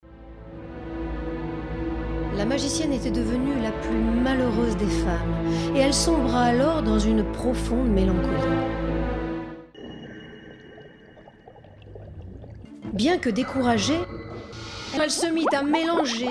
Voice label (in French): Narration Conte Voix naturelle explicative; Magicienne